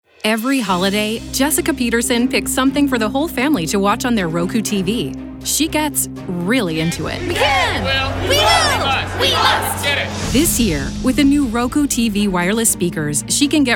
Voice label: Voiceover